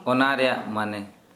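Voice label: Santali male by means of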